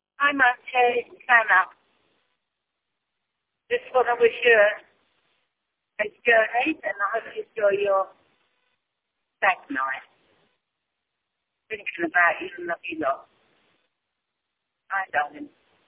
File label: voicemail